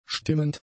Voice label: "stimmend", German; adjectives